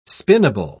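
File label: "spinnable", British English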